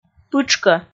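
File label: "пытшкӧ", Komi, female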